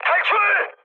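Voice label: Korean bailout mood high, speech; War Thunder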